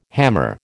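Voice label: "hammer", American English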